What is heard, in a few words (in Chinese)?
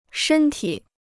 "身体", Chinese